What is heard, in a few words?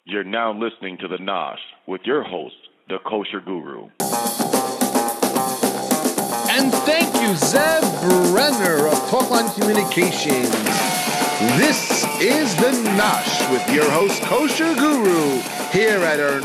Voice over